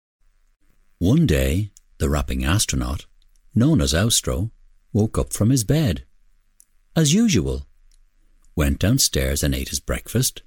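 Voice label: English Irish male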